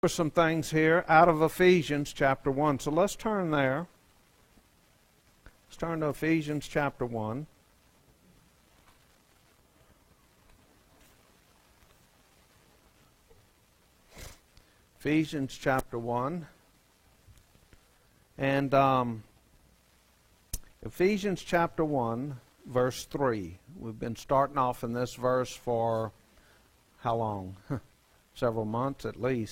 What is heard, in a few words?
preaching